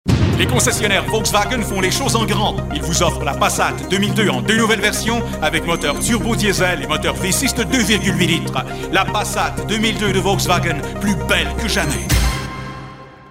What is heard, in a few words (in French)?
Voix pub; Volkwagon